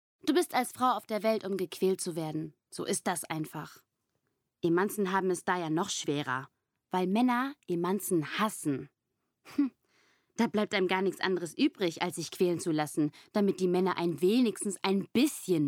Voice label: hörbuch

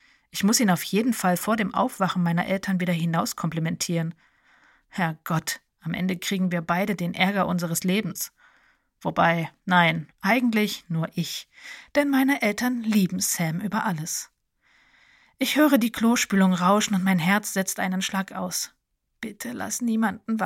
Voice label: Hoerbuch; Wie willst du Leben

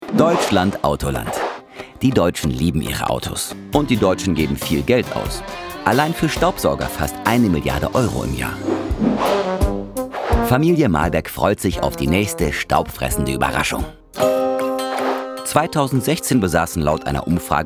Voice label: lebendig dynamisch Dokumentation; Autoland; RTL

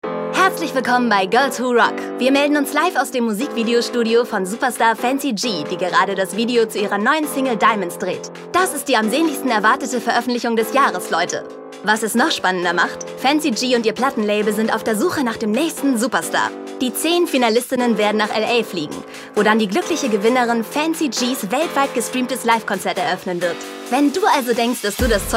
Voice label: Synchron Teenie Serie Moderation begeistert

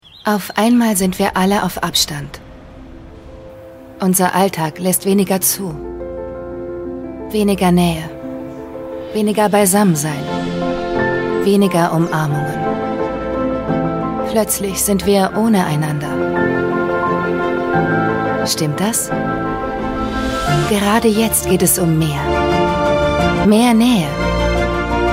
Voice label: Onlinespot; AOK

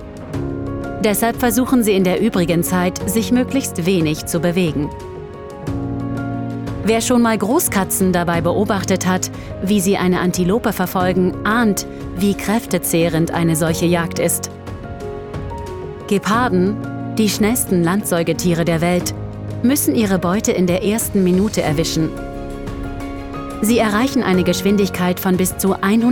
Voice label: Doku Katzen

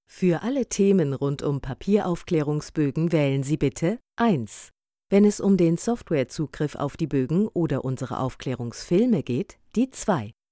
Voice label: Telefon Ansagen; LOFT VOICES

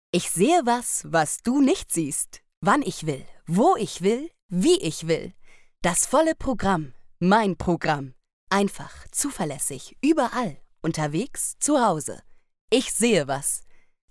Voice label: Werbung TV

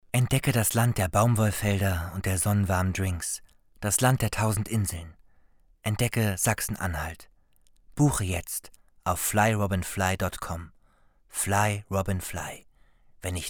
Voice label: Werbung Reise

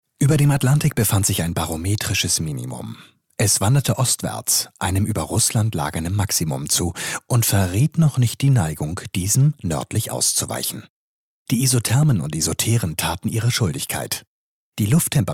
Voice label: kommentar

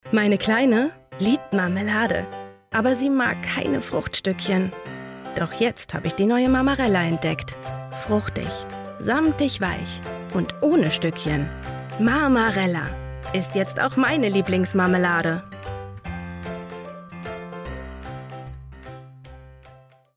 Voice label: Werbung; Marmarella

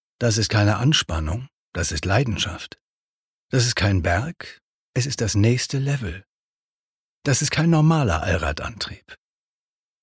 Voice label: Webung; QUATRO

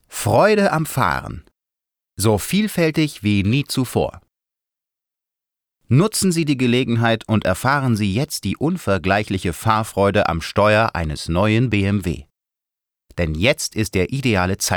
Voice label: imagefilm

